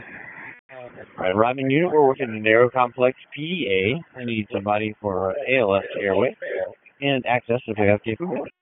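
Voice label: Public Safety Tactical, p25; wake p25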